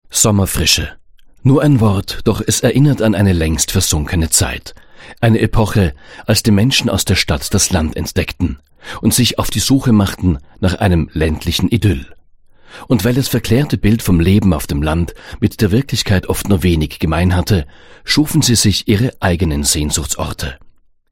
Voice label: Doku

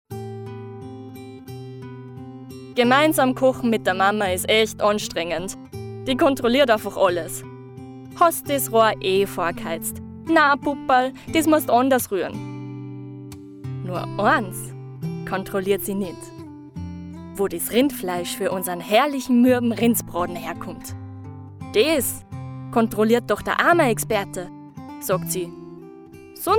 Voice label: Dialekt

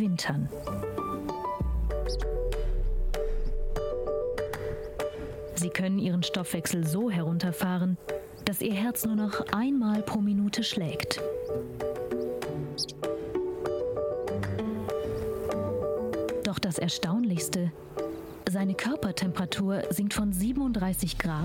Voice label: Sprecherin Dokumentation Arktischer Ziesel mit Musik

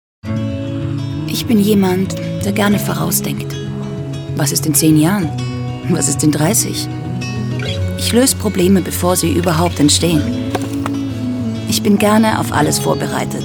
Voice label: Werbung D

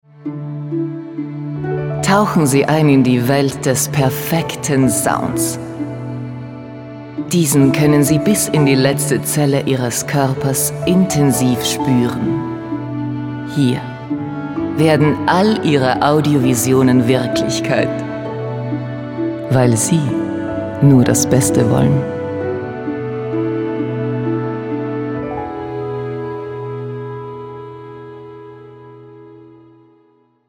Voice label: Werbung

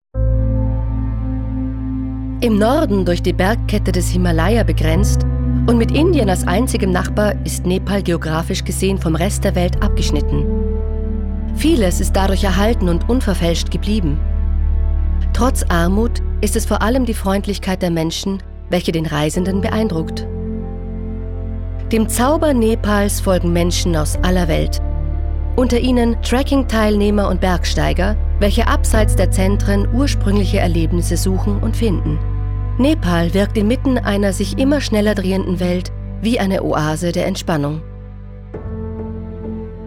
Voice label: Doku DE

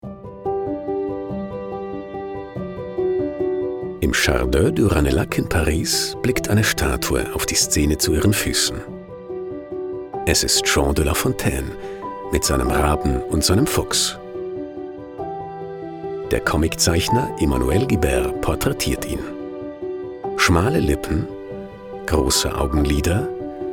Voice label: Doku Kommentar de; La Fontaine

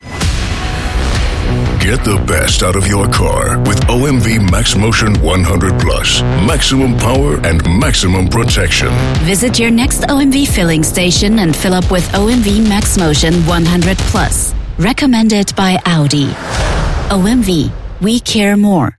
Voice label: Werbung

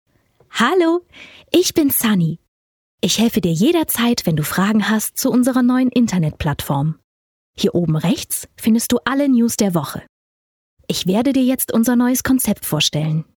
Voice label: Stimme fuer Website Guide

